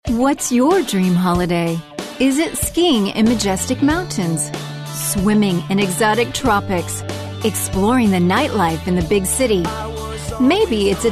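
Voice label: English, US, female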